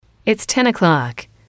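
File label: "it is ten o'clock", English, female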